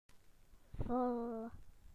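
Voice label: "full", British English; PictureDictionary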